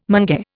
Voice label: "mange", Indian

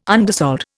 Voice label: "undersold", Indian

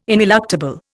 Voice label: "ineluctable", Indian

